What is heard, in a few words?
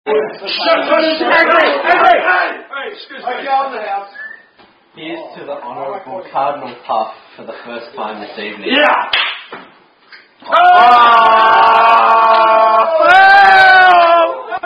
Australian Cardinal Puff Are You A Cardinal Drinking Game